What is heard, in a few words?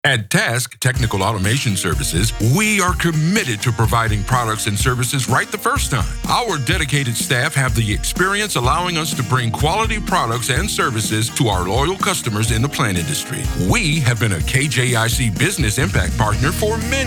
Radio; Radio Com; Technical Automation Services Corp; 90.5 KJIC